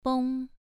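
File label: "beng" Mandarin, second tone, pronunciation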